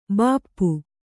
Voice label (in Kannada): "ಭೂಪ", Kannada